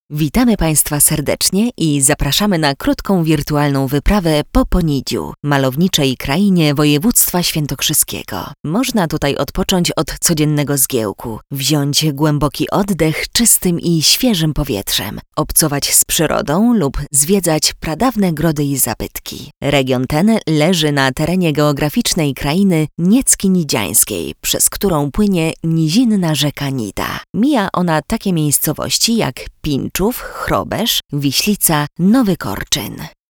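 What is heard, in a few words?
Polish, Female, narration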